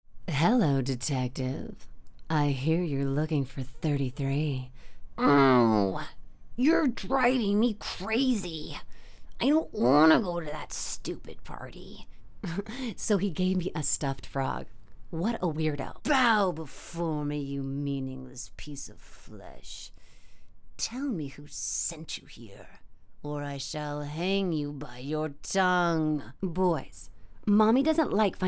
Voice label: animation